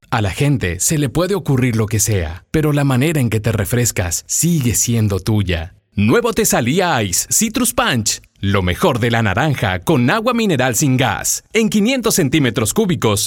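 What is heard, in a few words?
M, Spanish Argentina, male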